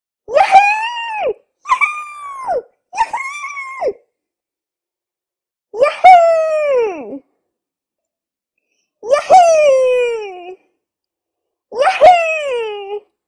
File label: Girl Saying "Yahoo"